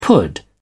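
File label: "pud", British English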